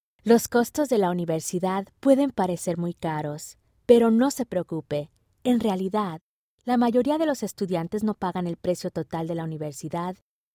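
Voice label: Spanish narration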